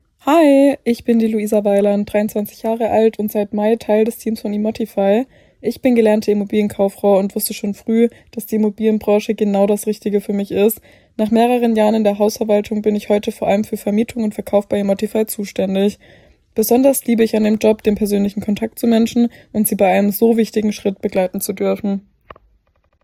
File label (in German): sprachnachricht